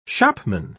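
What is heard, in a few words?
"shopman", British English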